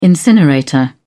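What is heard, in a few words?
"incinerator", British English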